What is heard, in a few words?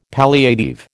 "palliative", American